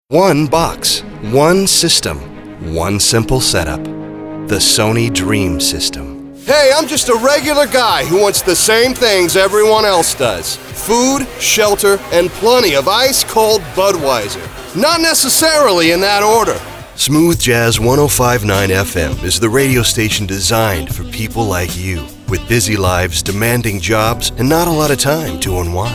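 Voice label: commercial voice over; demo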